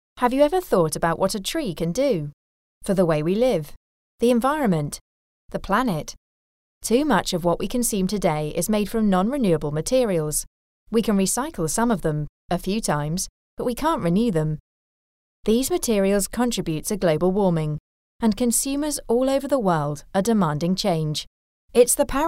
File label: Conversational